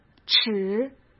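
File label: "尺" Mandarin Chinese; chinese-flashcards; hsk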